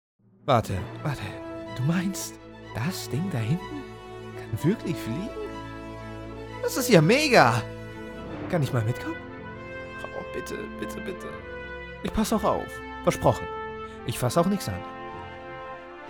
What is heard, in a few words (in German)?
Charakter GER